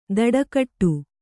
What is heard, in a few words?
"ದಡಕಟ್ಟು", Kannada